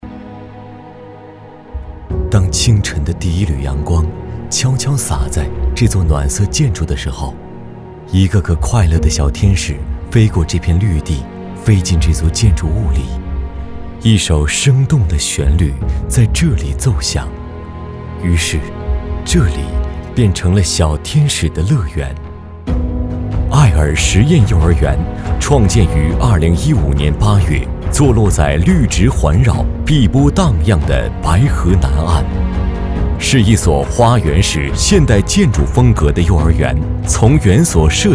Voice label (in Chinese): 幼儿园温馨舒适